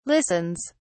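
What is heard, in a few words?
"listens", British English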